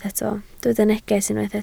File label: "neges", Welsh